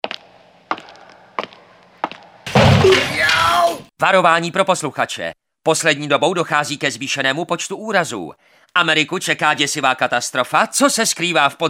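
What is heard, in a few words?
Male Czech commercial mix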